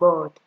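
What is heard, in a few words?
"gbod", Nigerian Pidgin, female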